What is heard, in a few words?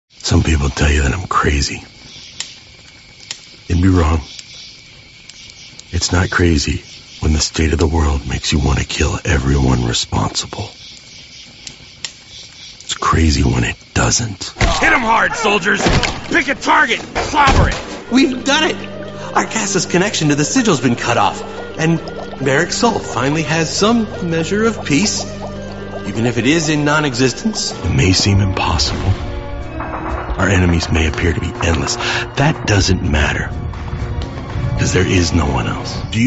english american video games